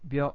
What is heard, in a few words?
"byo", kana